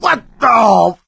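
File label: boomer reaction, voice, male; Left 4 Dead 2; coach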